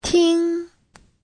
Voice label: "ting1", Chinese

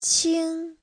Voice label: "qing1", Chinese

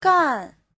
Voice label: "gan4", chinese; Giáo trình Hán ngữ

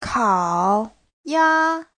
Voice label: "kao3 ya1", chinese; 烤鸭; vịt quay

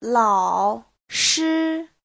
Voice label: "lao3shi1", chinese

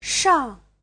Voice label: "shang4", Chinese; Giáo trình Hán ngữ

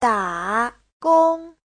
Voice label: "da3 gong1", chinese; 打工; đi làm, làm thuê

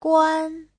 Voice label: "guan1", chinese; 关; (động từ) tắt, đóng; Giáo trình chuẩn HSK3

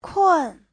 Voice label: "kun4", chinese

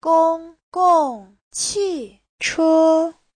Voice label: "gong1 gong4 qi4 che1", chinese

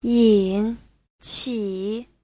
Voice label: "yin3 qi3", Chinese; 引起; gây ra, dẫn tới

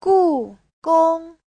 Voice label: "gu4 gong1", chinese; 故宫; Cố Cung

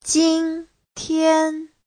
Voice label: "jin1 tian1", chinese

